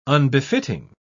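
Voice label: "unbefitting", British English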